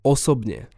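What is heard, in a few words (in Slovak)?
"osobne", Slovak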